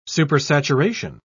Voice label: "supersaturation", en uk